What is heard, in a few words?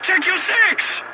voice message check your six, english; War Thunder